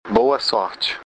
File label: good luck, portuguese br